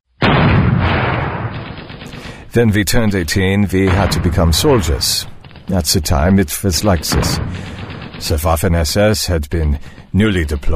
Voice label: GERMAN accent voiceover

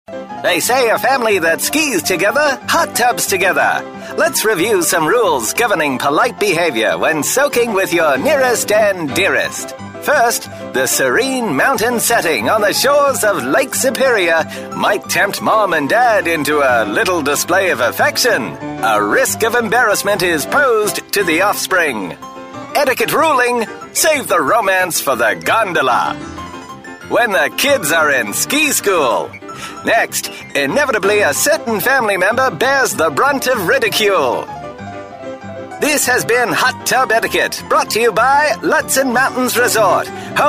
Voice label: roaring 20s voiceover

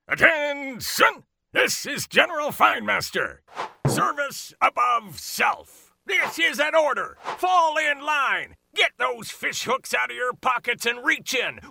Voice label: Drill Sargeant voiceover

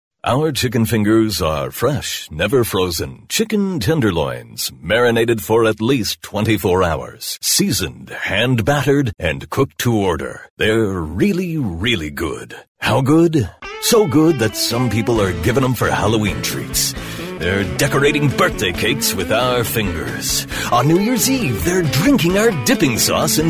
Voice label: MELLOW INTENSE voiceover

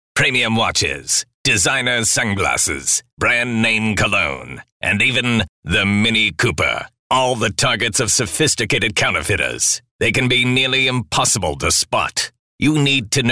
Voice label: MELODRAMATIC voiceover

